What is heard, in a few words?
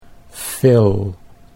"fill", English